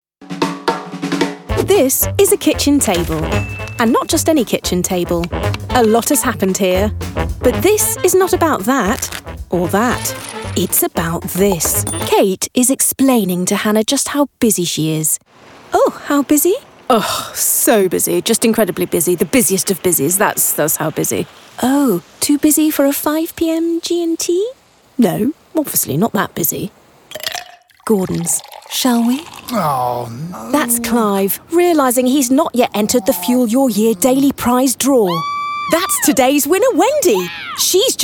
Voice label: commercial

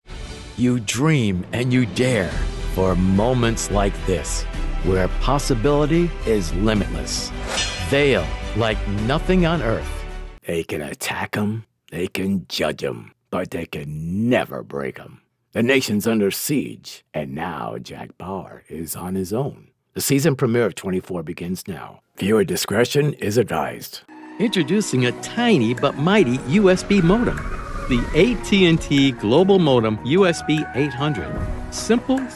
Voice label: commercial

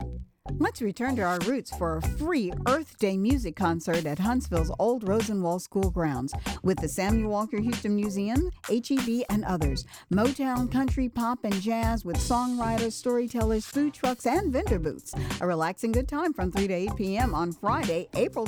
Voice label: PSA; Earth Day Fest

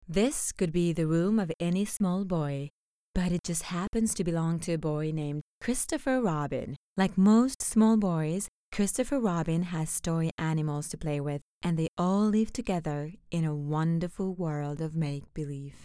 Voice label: Warm Narration

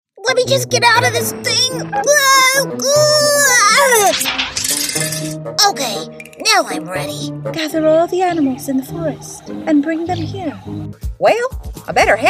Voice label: Animation

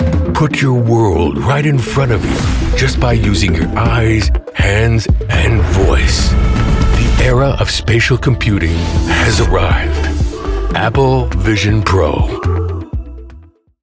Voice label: tech storyteller wow factor promo style; Apple

